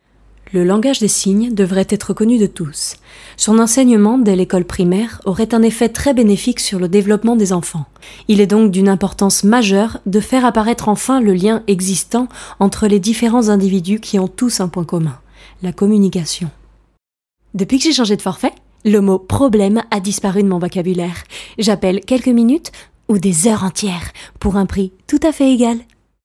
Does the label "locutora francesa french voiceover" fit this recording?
Yes